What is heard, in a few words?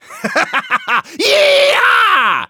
Engineer battlecry de